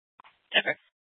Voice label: PD Dispatch